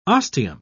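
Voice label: "ostium", British English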